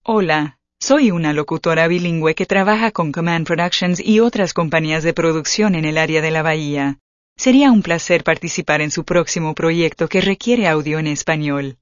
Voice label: Spanish